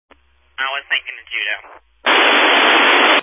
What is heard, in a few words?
Family Radio Service (FRS)